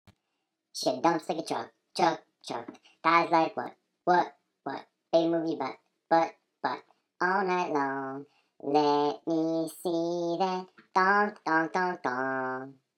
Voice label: chipmunk